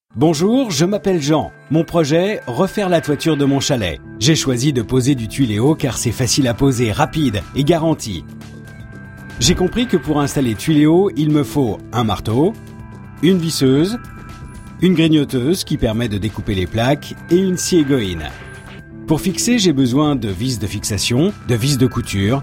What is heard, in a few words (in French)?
Voix Off Corporate; Tuyléo